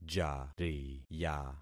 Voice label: "jariya", concat syllables; Affixing experiment

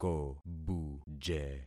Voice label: "kobuje", concat syllables; Affixing experiment

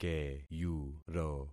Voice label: "keyuro", concat syllables; Affixing experiment

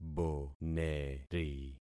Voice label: "boneri", concat syllables; Affixing experiment

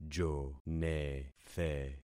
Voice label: "jonethe", concat syllables; Affixing experiment

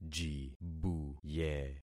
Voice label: "jibuye", concat syllables; Affixing experiment

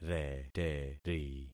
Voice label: "reteri", concat syllables; Affixing experiment

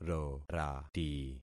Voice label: "rorati", concat syllables; Affixing experiment

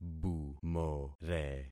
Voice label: "bumore", concat syllables; Affixing experiment

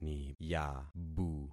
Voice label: "niyabu", concat syllables; Affixing experiment

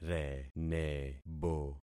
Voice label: "renebo", concat syllables; Affixing experiment

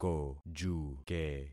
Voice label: "kojuke", concat syllables; Affixing experiment

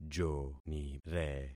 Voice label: "jonire", concat syllables; Affixing experiment

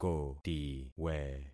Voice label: "kotiwe", concat syllables; Affixing experiment

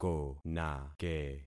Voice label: "konake", concat syllables; Affixing experiment

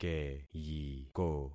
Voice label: "keyiko", concat syllables; Affixing experiment

